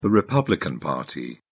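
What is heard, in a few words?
"republican party", British English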